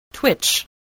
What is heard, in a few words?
"twitch", English spelling voice